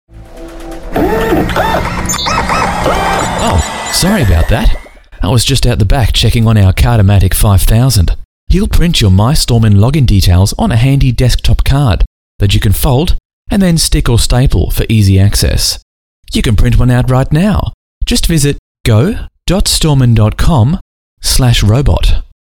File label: voiceover